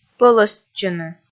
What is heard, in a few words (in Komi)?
"пӧлӧстчыны", Komi